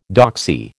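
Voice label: "doxy", American English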